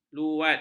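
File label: "luat", Malay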